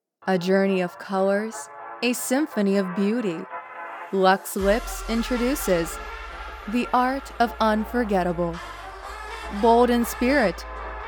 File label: Commercial, Voice Actor; Demo Reel